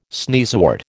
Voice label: "sneezewort", American

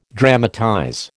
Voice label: "dramatize", American

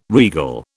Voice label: "regal", British

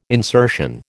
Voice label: "insertion", American

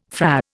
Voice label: "Fra", Indian

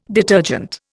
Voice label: "detergent", Indian

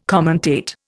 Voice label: "commentate", Indian

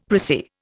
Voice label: "prissy", Indian; Dictionary

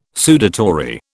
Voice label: "sudatory", British

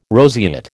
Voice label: "roseate", American

